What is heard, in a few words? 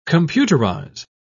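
"computerise", English, British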